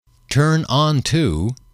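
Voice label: turn on, male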